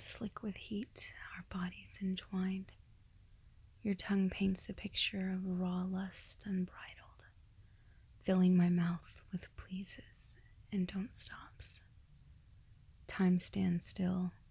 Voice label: Conversation Erotic Poetry sensual lips kiss body language passion; F4A